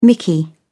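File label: "mickey", British English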